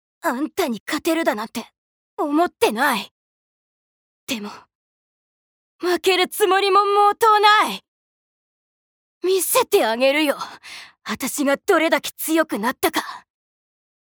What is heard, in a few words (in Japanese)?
アニメ